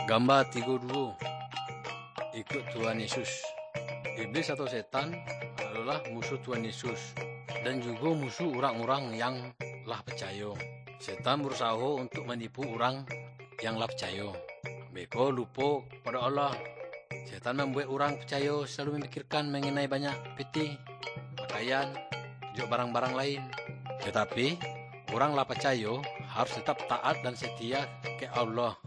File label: good news minangkabau muko muko; kaba ilo